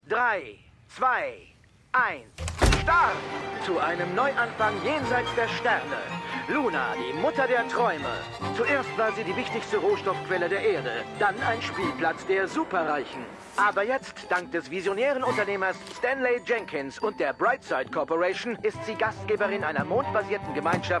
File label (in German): Werbesprecher; HELLO TOMORROW!